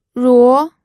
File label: "ruo2", pinyin